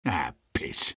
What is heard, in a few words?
negative vocalization; sniper; garrysmod; tf2-content-gmodcontent